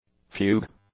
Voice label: "fugue", English; nouns